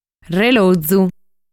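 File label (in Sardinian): "Relozu", Sardinian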